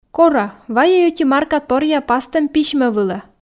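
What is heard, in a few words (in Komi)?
кора вайӧй ӧти марка торъя пастӧм письмӧ вылӧ, Komi, female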